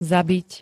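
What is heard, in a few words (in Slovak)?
"zabit", Slovak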